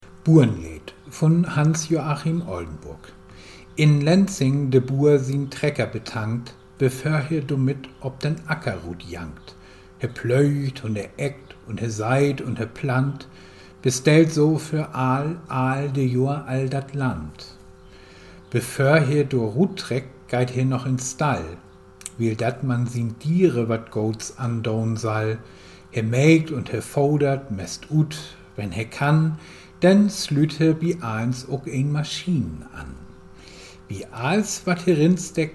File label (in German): Gedichten, niederdeutsch; Oldenburg